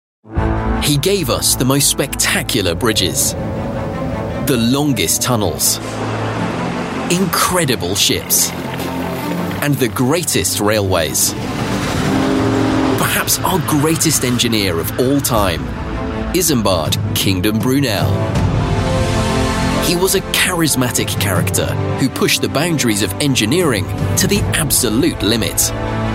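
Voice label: documentary, British-Westcountry Male Voice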